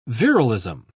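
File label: "virilism", English, British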